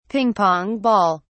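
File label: "ping-pong ball", British English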